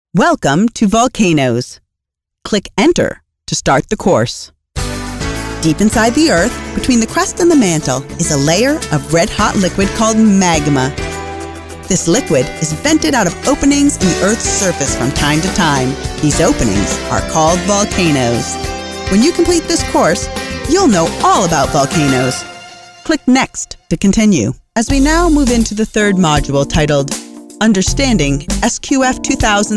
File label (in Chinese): 美式英语女声